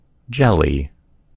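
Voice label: "jelly", American English; gcompris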